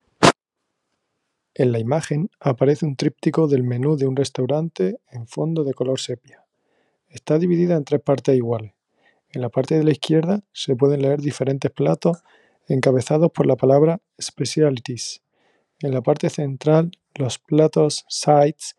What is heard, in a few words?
count to five in fre